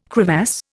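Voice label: "crevasse", Indian English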